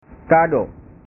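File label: "kado", Indonesian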